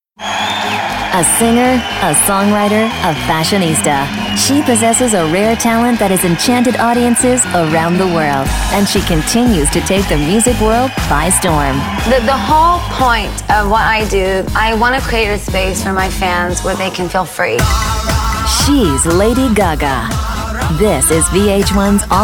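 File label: NAR, narration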